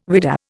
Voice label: "whidah", Indian